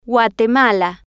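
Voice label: "guatemala", Spanish, female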